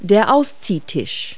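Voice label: "extension table", English words; Multi-Lingual Talking Picture Dictionary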